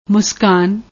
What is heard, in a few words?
"muskaan", Punjabi